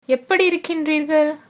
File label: how are you, tamil